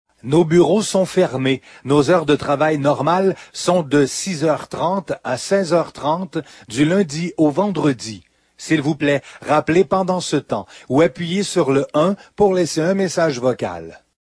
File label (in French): french